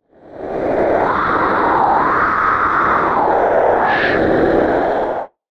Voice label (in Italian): suono voce paurosa effetto sonoro